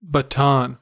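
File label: "baton", US English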